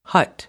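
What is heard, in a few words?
"hut", English; noun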